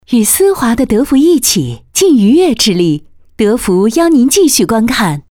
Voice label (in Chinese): 女, 电视剧中插口播广告